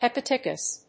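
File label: "hepaticus", English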